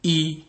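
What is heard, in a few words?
"e", Nigerian Pidgin, male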